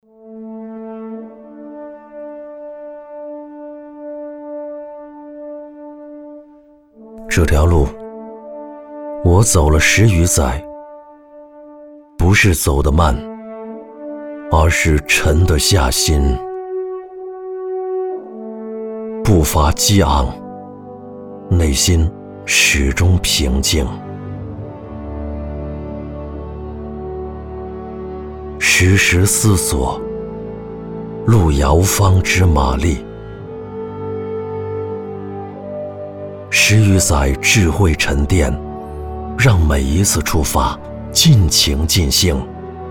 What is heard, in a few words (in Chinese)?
男, 汽车广告; 智敬每一程